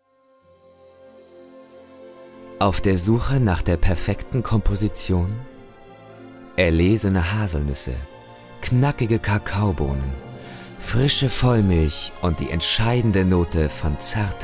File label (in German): werbung schokolade